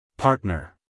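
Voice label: "partner", American English, male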